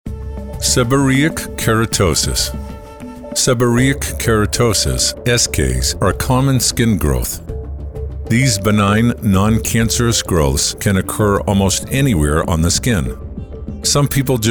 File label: Medical Narration